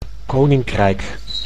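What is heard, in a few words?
"koninkrijk", Dutch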